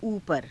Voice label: "uupara", hindi

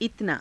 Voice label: "itanaa", Hindi

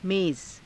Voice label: "meza", Hindi

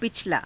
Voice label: "pichalaa", Hindi

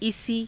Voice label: "isii", Hindi

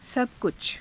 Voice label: "saba kucha", Hindi; 全部，なにもかも; सबकुछ

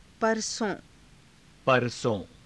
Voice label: "paraso~", Hindi; परसों; [副]明後日，一昨日

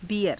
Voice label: "biyara", hindi

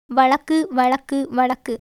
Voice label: Tamil

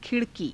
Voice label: "khi_rakii", Hindi

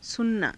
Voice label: "sunanaa", hindi

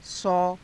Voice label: "sau", hindi

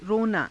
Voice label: "ronaa", hindi; रोना; [自動]泣く

